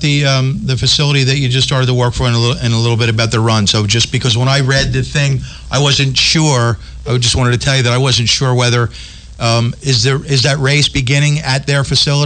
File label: Radio; Care Stat Fun Run 5K